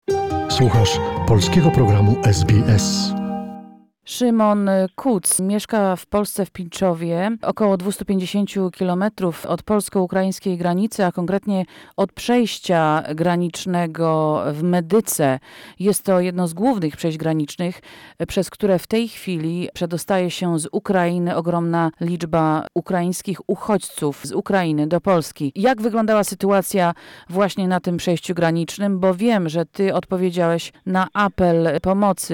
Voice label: polish; SBS Polish; Poles mobilize in a massive effort to help Ukrainians